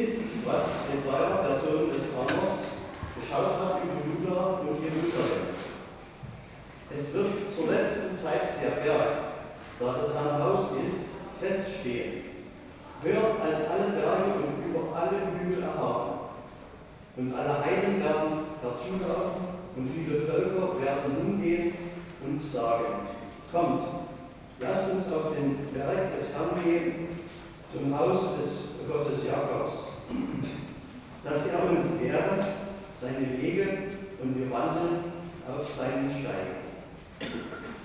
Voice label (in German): Lesung AT; Gottesdienste zum Nachhören; Gottesdienst Dresden Zion